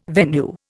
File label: "venue", Indian